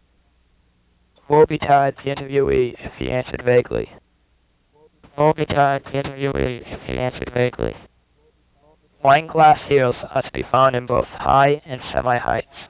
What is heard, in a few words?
phonetic vocoder